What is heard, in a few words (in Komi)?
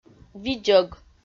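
"видзӧг", Komi, female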